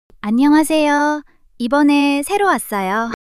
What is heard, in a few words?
shy